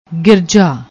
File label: "girja", Punjabi; ਗਿਰਜਾ